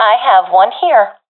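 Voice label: sees one enemy, professional female; vo; Counter Strike Model Pack; CS:GO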